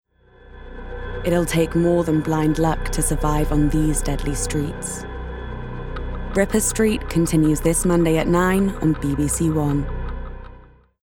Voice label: Dark, Mysterious, Smooth, Promo, RP ('Received Pronunciation'); Ripper Street